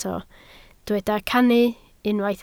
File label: "cannu", Welsh